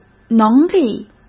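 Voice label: "能力", Mandarin Chinese; chinese-flashcards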